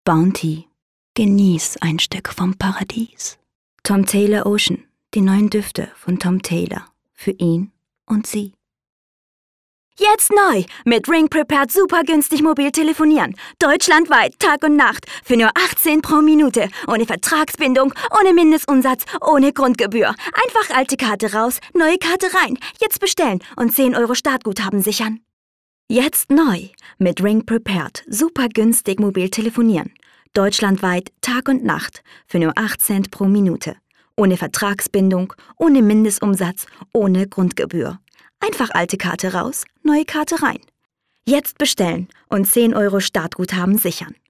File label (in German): werbung